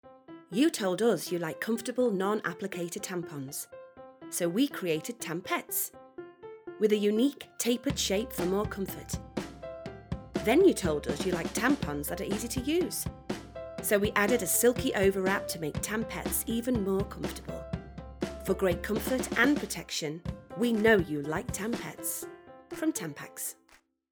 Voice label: Voiceover Commercial; Tampax